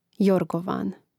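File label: "jorgovan", Croatian; mreznik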